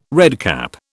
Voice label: "redcap", British